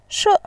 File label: "she4", voice